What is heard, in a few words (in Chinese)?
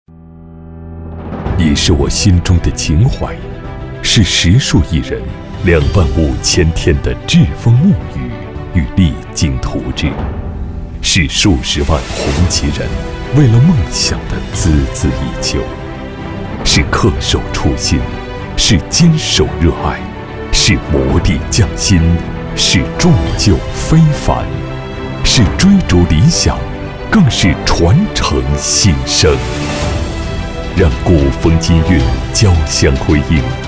男 宣传片 汽车 品质走心; 红旗汽车